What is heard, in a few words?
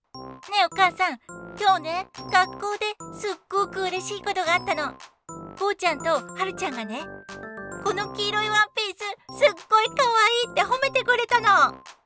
Charactor female child